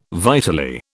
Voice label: "vitally", British